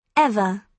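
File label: "ever", British English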